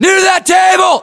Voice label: landmark near table, US; localized english; battlechatter

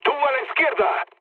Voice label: left wing, mood low, speech; War Thunder

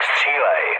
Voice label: attack position, speech cze, mood med

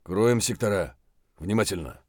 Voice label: mutter, voice; Escape from Tarkov

